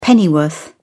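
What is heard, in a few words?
"pennyworth", English, British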